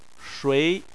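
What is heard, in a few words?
"shui", Chinese, second tone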